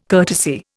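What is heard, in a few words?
"courtesy", Indian English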